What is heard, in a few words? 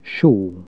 salt, hungarian Consonants